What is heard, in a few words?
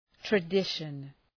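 "tradition", English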